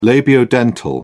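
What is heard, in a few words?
"labiodental", British English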